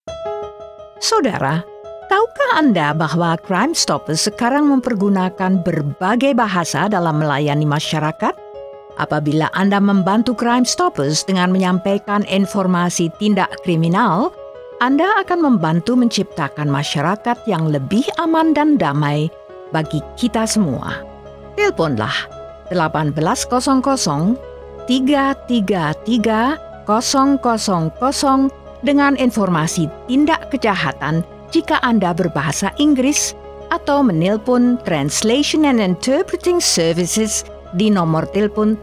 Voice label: Indonesian; Crime Stoppers

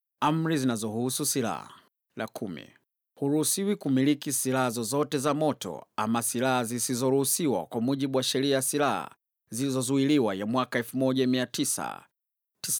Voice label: orders about weapons swahili; apprehended domestic violence orders accessible guides

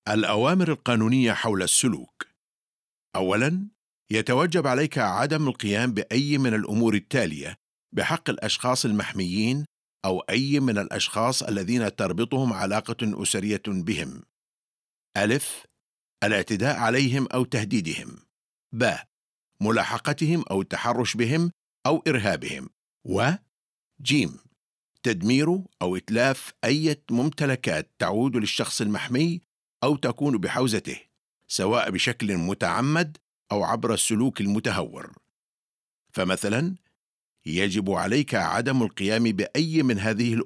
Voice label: arabic; Orders About Behaviour

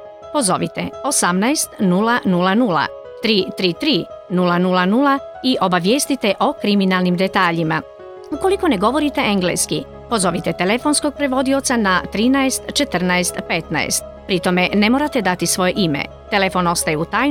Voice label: Bosnian Crime Stoppers; bosanski

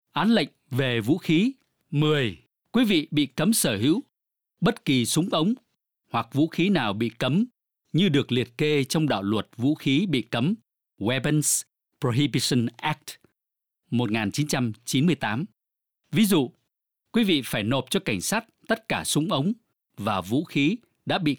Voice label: vietnamese; orders about weapons

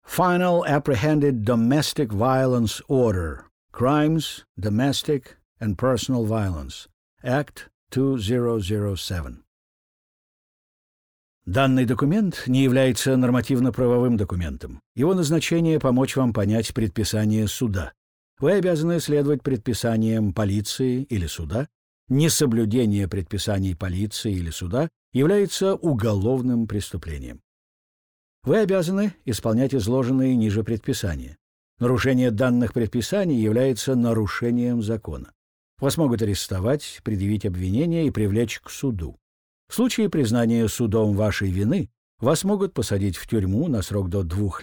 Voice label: introduction russian

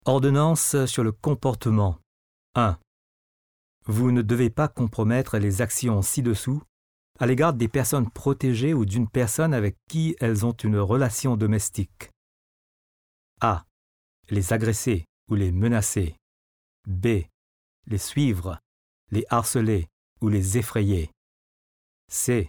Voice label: french; orders about behaviour

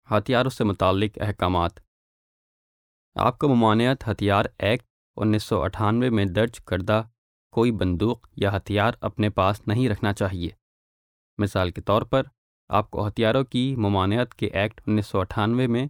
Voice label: orders about weapons urdu

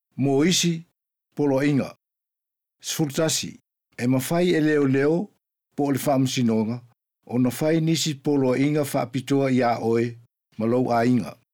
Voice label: other orders samoan

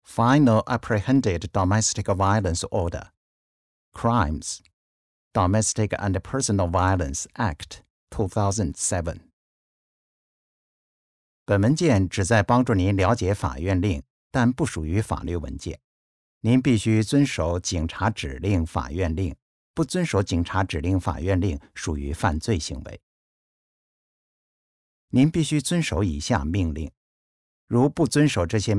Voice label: mandarin; introduction

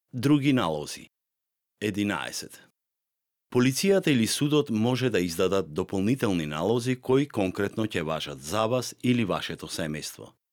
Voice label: macedonian

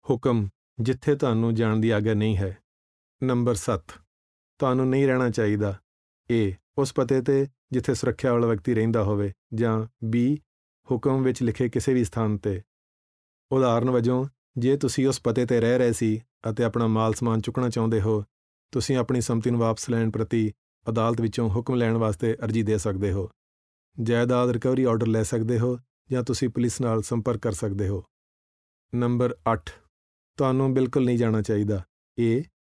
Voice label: punjabi; orders about where you cannot go

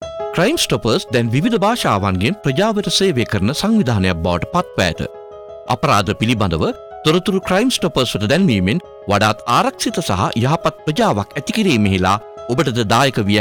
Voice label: Sinhalese Crime Stoppers

